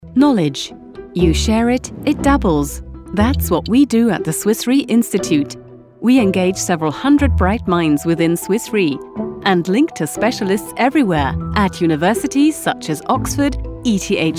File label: EN Image; Swiss Re